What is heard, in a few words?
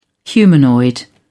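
"humanoid", English, British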